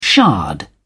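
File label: "shard", British English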